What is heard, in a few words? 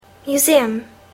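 "museum", English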